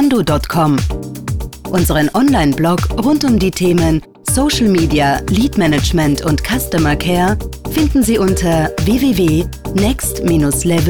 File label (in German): telefonband warteschleife kurz; ajando